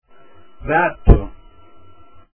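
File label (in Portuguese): "prato" Portuguese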